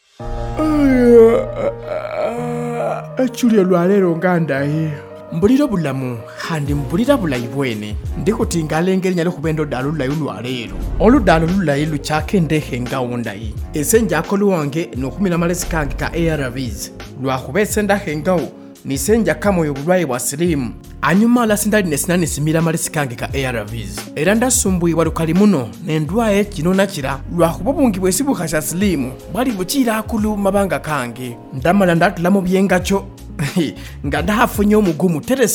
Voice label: HIV Spot Samia, radio spot; Viral Load Suppression